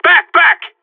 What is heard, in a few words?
radio bot fall back, seal; player vo